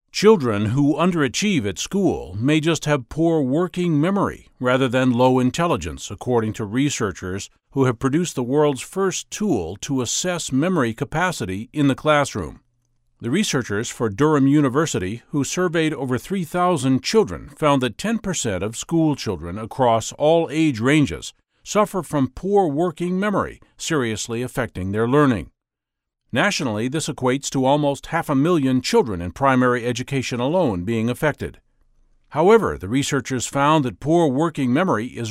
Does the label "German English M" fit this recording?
No